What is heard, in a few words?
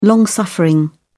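"long-suffering", British English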